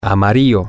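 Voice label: "yellow", Spanish, male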